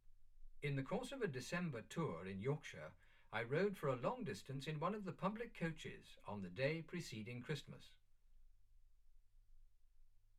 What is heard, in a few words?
male speech english